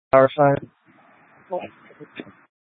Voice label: Light Rail Emergency, scanner